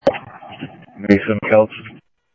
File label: Simulcast Police Dep, scanner audio trunk; Mesa Simulcast; MESA PD Superstn; MesaPoliceDep